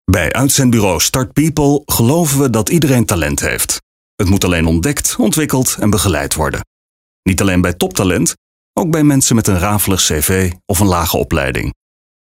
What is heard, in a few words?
medium sell natural low voice